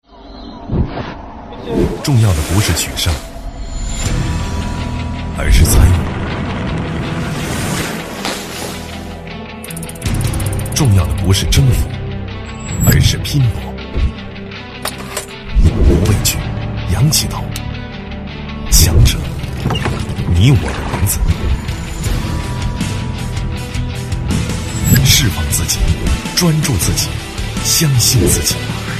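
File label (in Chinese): 男【宣传形象片】; 重庆旅游节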